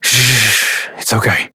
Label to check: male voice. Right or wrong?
Wrong